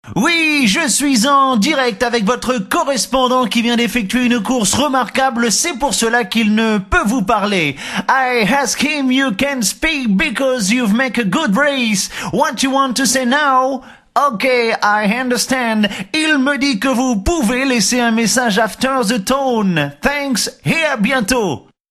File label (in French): Repondeur Drole